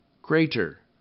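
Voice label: "crater", English; RhymeTest; hair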